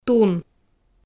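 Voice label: "тун", Komi, female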